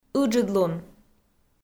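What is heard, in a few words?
"ыджыдлун", Komi, female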